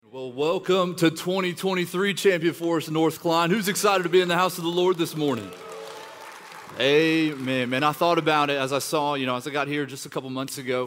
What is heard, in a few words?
Sermon English